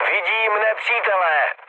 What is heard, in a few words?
enemy detected, speech cze, mood low; War Thunder